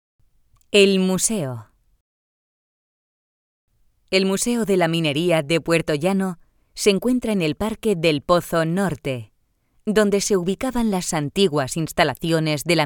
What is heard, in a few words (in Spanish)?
audioguia; audioguia museo de la mineria; esp